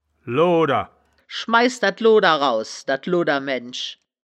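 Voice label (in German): "looder", wittlicher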